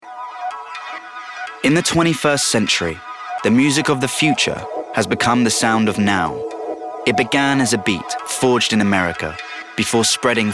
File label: doco